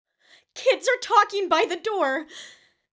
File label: fearful